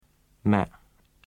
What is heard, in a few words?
"ma" low broken, vietnamese